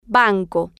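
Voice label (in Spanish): "banco", Spanish, female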